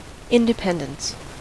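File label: "independence", American English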